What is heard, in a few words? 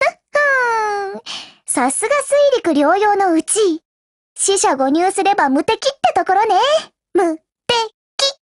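WIN Japanese, Voice; GF Alarm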